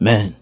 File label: "man", g-rated swears; Voice; expletives